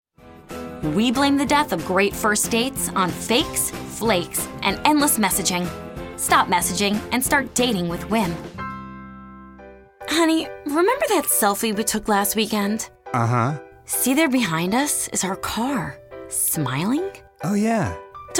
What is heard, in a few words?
Commercials